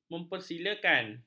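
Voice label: "mempersilakan", Malay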